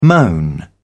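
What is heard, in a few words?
"moan", British English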